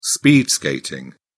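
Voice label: "speed skating", English, British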